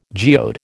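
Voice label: "geode", American English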